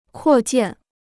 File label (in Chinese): "扩建", Chinese